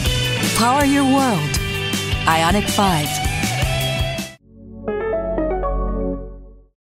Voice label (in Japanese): サウンドロゴ（お洒落、クール、カリスマ的）; IONIQ 5